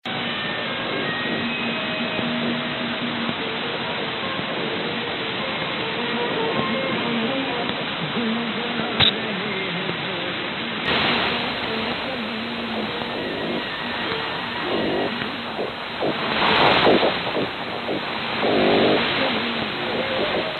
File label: All India Radio 15050khz